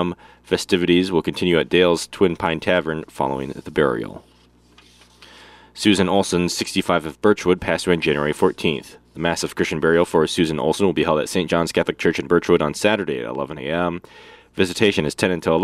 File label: Funeral Announcements